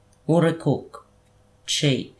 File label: "murrekuk" cheek